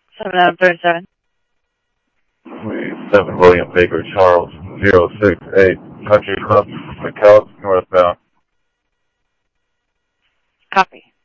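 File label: Mesa Police Department, scanner audio trunk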